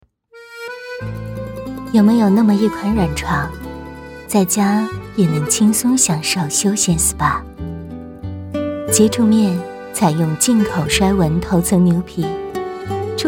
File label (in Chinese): 沙发 广告